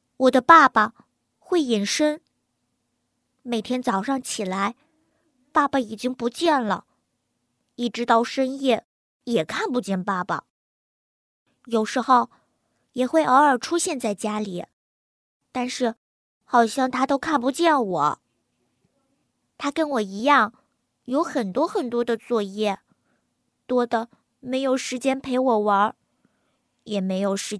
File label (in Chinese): 女 童声 女童 10岁女孩